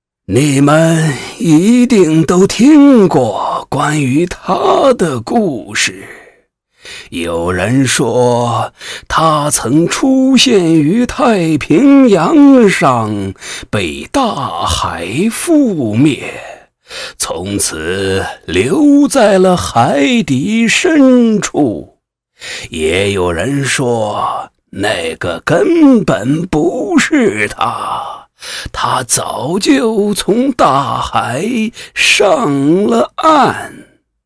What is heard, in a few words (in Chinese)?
老人音样音